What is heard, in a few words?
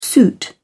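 "suit", British English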